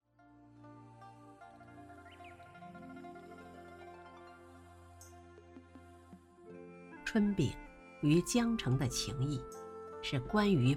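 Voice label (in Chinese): 春饼, 普通话 声线清亮通透，风格温柔治愈有感染力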